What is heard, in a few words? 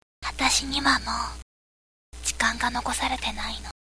girl